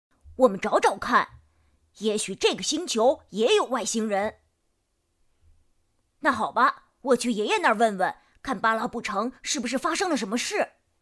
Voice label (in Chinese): 少年